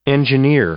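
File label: "engineer", English; noun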